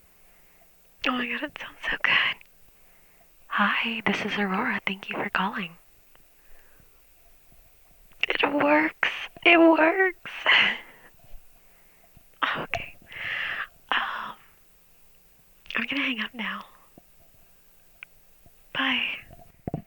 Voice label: phone mic test